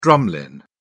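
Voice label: "drumlin", British English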